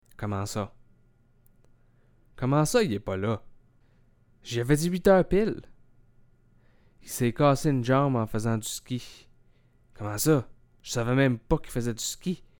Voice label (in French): comment ça, québécois